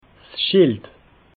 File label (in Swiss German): "schild", alsace